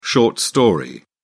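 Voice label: "short story", British English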